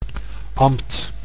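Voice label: "ambt", Dutch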